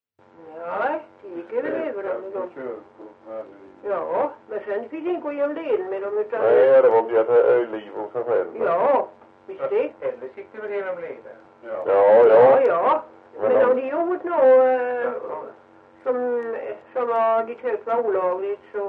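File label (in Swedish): Ventlinge Öland, Svenska sagor dialekter; Folkminnen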